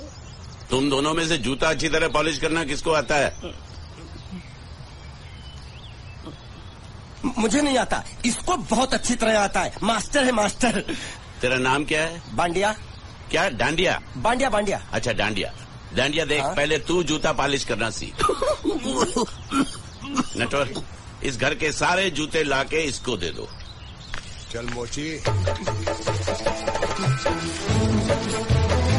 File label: funny dialogue